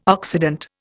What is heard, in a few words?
"Occident", Indian